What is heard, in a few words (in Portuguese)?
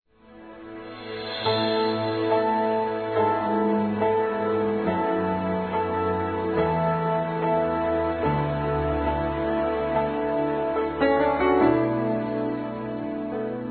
PAQUERA (F), Telemensagens, Gospel; fem; Telemensagens 2017